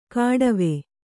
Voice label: "ಕಾಡವೆ", Kannada

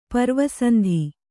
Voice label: "ಪರ್ವಸಂಧಿ", Kannada

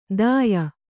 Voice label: "ದಾಯ", Kannada

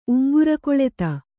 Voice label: "ಉಂಗುರಕೊಳೆತ", kannada

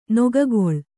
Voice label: "ನಗ್ಗಲು", kannada

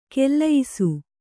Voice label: "ಖುಲಾಯಿಸು", kannada

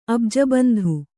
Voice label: "ಅಬ್ಜಬಂಧು", kannada

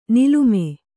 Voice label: "ನೀಲಿಮೆ", Kannada

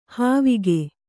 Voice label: "ಹಾವಿಗೆ", kannada

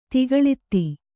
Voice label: "ದಾಖಲಾತು", Kannada

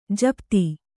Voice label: "ಜಪಿತ", Kannada

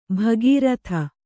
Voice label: "ಭಾಗೀರಥಿ", Kannada

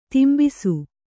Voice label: "ದಂಬಿಸು", Kannada

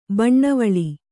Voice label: "ಬಣ್ಣವಳಿ", Kannada

